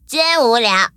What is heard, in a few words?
defeat, zh-hant; Cookie Run